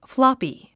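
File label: "floppy", English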